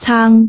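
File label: "caang3", Cantonese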